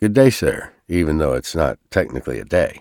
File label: generic male meet player